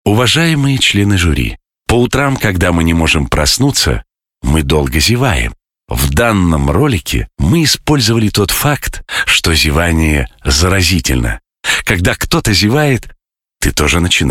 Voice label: the most yawning commercial